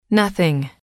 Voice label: "nothing", English

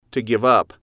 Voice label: to give up, English

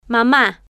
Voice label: "mama", Spanish

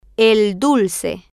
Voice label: el dulce, Spanish

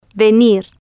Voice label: "venir", Spanish

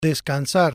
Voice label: "descansar", spanish

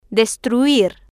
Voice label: "destruir", spanish

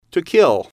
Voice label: to hill, English

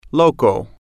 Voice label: "loco", spanish

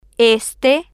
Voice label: "este", Spanish

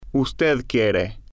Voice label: "el Quiere", Spanish

